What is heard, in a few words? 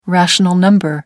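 "rational number", British English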